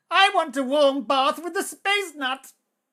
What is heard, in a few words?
battle chatter